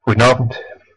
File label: good evening, west flemish